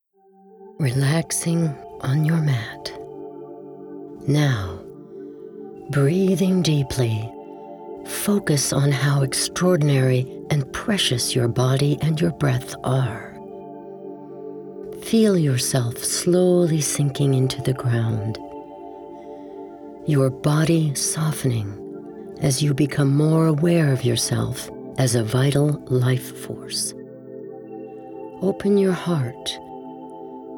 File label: Meditation Relaxation